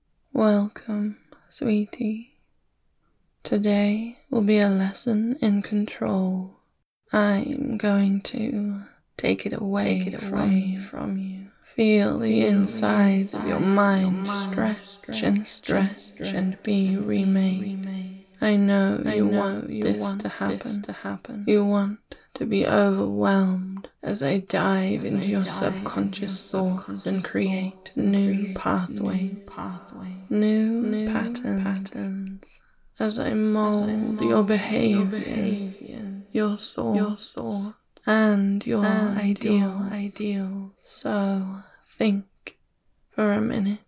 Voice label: Positively Feminine Voice Only; SFX Public Full Session